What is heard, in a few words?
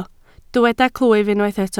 "clwyf", Welsh